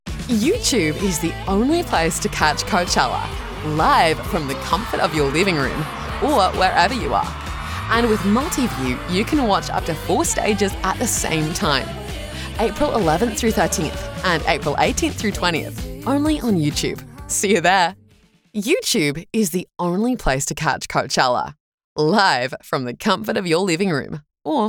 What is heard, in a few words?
english new zealand tv shows; voice actor